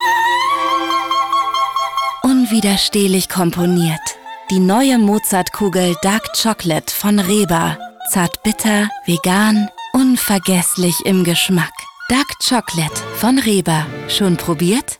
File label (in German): Werbung; Reber Mozartkugeln